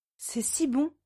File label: "C'est si bon", French